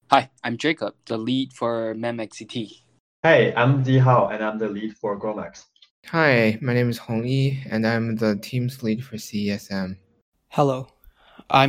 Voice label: Voiceover; ClusterComp 2020